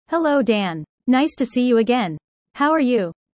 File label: user fast; Animal friends